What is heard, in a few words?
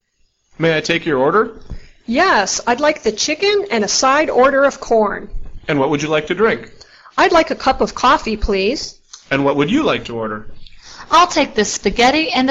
dialog